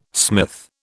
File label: "smith", British